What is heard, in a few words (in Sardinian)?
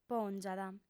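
"pòngiat", female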